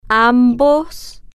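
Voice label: "ambos", Spanish, female, slow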